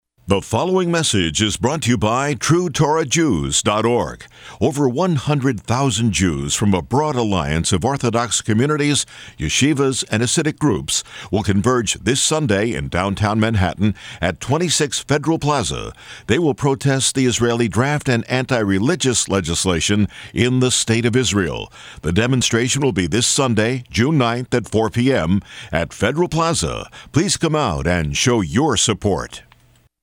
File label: radio announcement; Announcing Foley Square Demonstration